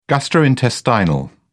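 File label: "gastrointestinal", British English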